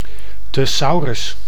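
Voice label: "thesaurus", Dutch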